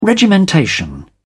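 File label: "regimentation", British English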